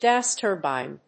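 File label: "gas turbine", English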